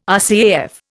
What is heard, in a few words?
"RCAF", Indian